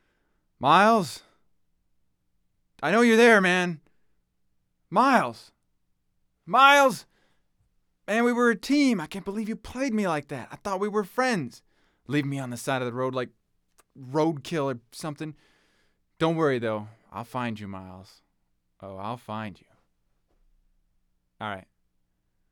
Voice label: Phone Call; Broken Road ADR